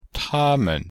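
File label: "ta1men5", Chinese; Cours-de-Chinois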